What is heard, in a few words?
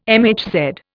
"MHz", Indian